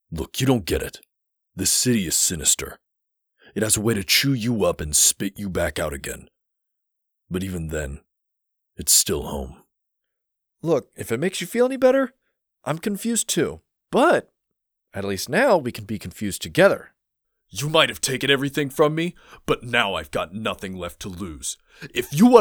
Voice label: Character Sample